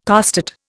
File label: "costate", Indian